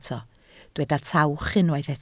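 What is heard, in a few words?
"tawch" Welsh, spoken word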